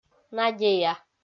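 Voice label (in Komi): "надея", Komi, female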